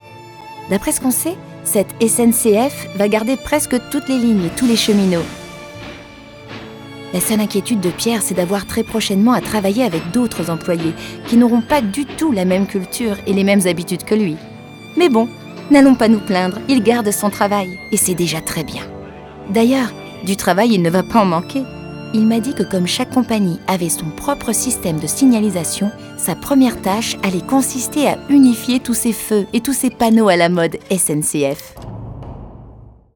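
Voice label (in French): epistolaire jeune, voix off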